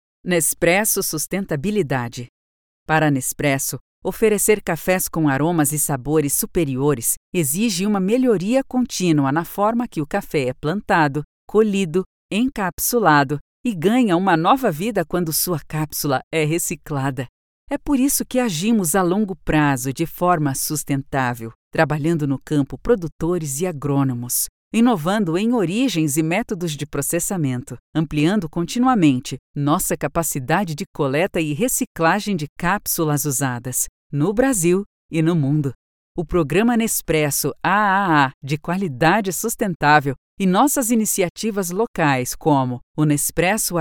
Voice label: female brazilian portuguese commercial; nespresso